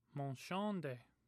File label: "monshonde", omaha language